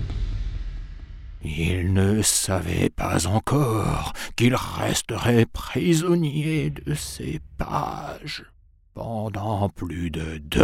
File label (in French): voix off livre; songes